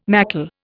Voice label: "macle", Indian